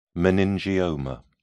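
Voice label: "meningioma", British English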